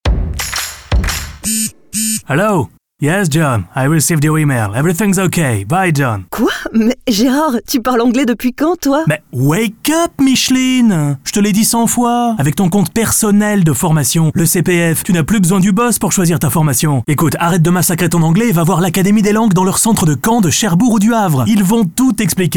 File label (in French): SPOT; ACADEMIE DES LANGUES